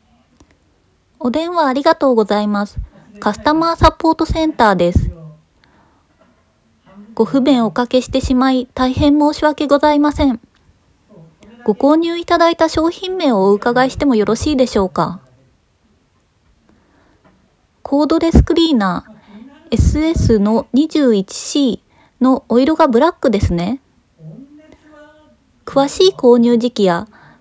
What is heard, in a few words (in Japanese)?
通販 30代 女性